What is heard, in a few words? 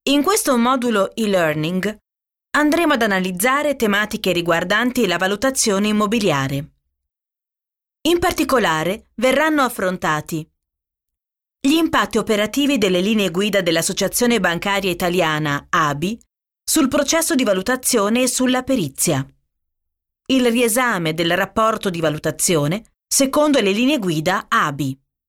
e-learning